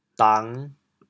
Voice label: "dang5", chaoyang